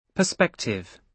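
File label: "perspective", British English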